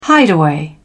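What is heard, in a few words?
"hideaway", English, British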